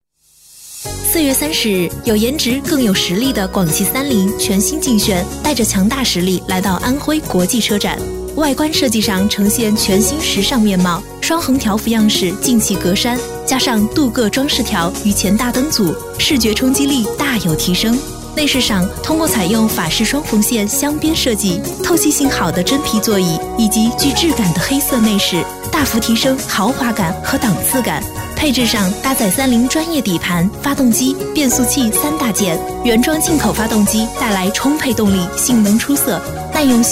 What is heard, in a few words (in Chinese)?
女 资讯 全新劲炫, 国语女声配音